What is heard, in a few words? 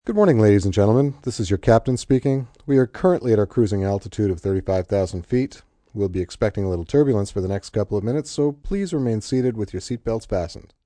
Pilot Captain, Pilot, male, passenger welcome speech, PA, announcements; Airport; VoiceBox